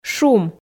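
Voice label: "шум", Komi, female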